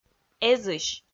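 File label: "эзысь", Komi, female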